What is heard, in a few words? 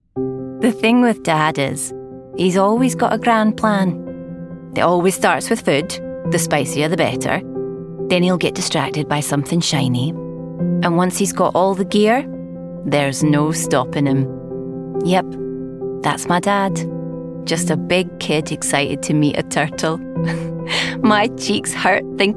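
COMMERCIAL, Female; P&O COMMERCIAL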